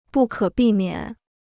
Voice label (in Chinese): "不可避免", Chinese